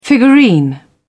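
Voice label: "figurine", English, British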